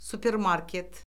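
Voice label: "supermarket", Azerbaijani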